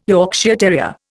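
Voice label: "Yorkshire terrier", Indian English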